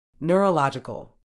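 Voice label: "neurological", British English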